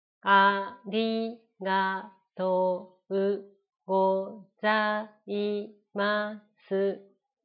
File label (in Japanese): ナレーション